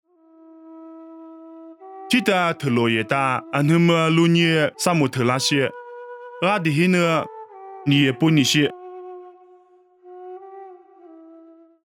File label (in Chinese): 凉山彝语; 地中海尼亚波利